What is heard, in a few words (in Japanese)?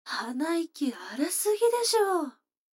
女の子台詞